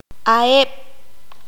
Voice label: "ellos", Q'anjob'al

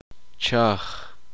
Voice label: uspanteko cenizas

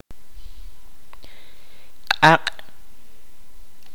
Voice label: "fuego", Kaqchikel

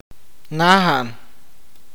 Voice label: "vivir", awakateko

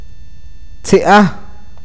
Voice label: boca, awakateko

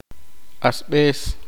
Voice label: "hermano mayor", Poqomchi

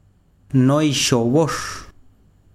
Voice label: "serpiente", besiro, chiquitano

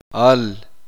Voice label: pesado que pesa, uspanteko

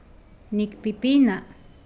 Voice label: Nawat palabras chupar; pipil

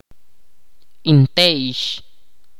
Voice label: "nuevo", Ch'orti'

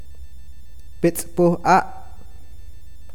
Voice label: "mar", awakateko

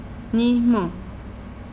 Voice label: rodilla, mazahua

